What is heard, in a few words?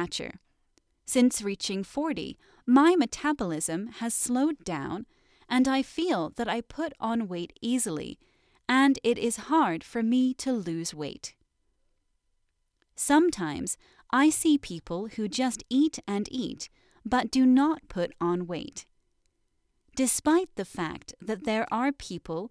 diet normal speed